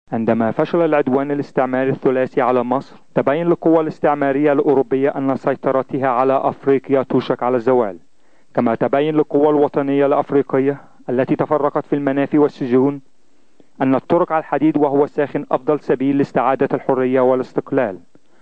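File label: Arabic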